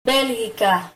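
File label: belgium, Spanish; gcompris-data; geography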